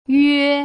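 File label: "yue", Putonghua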